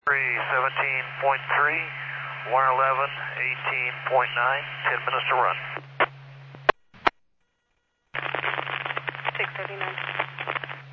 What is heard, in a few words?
Medevac, scanner; NativeAirAmb